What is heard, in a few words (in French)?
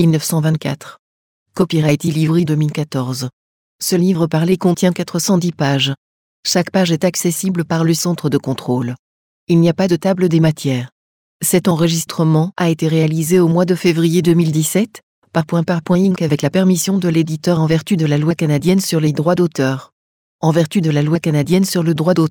Echantillon Voix de Synthese; Pour écouter un échantillon de texte enregistré avec une voix de synthèse; DAISY